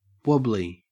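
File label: "wobbly", Australian English; pronunciations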